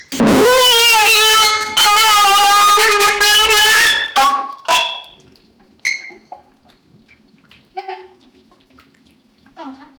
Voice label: an angry lady shouting; slapstick

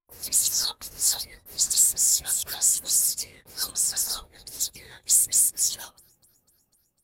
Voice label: distant indistinct whispers

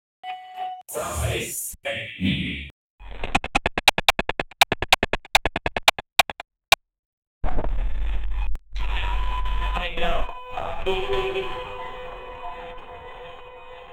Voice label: buy now voice glitch spar, advertising

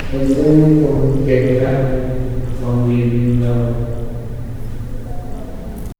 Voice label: a soft indistinct murmur, conversation

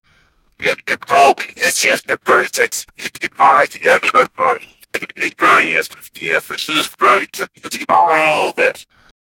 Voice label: aggressive pirate

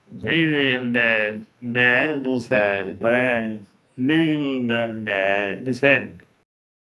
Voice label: an chinese man saying, bus

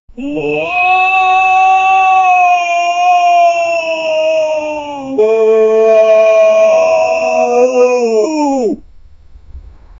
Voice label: im not gayyy deep voice, shout

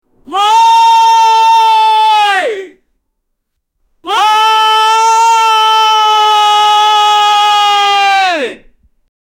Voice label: a guy screaming "thank god"; thankful

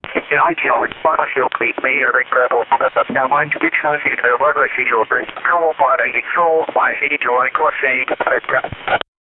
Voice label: voiceover

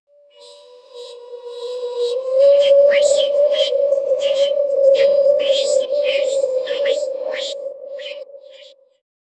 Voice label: whisper echoes, overlapping voices

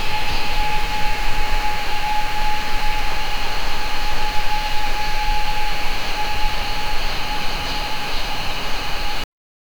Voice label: a low tense voice speaks; animal call

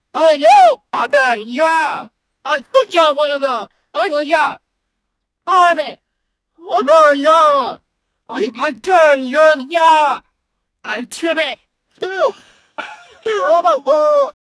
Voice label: a frustrated woman yells; human emotions

